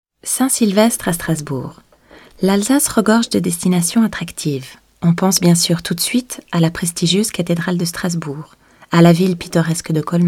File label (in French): FR doux; voix-off; casting de voix-off; taurus-studio